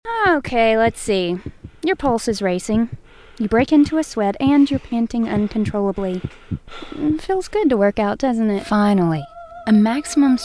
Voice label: Commercial